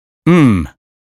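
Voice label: American phonetics sound criticism; 英语音标发音（剑桥版）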